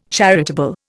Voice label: "charitable", Indian

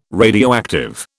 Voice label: "radioactive", British

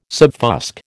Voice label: "subfusc", American

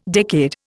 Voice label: "decade", Indian

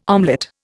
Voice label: "omelette", Indian

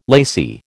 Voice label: "lacy", American

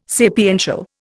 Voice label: "sapiential", Indian

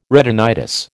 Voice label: "retinitis", American

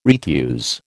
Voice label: "retuse", American

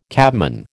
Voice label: "cabman", American

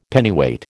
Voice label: "Pennyweight", American

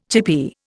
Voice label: "chippie", Indian

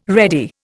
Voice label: "ready", Indian

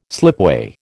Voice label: "slipway", American

